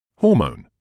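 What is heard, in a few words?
"hormone", British English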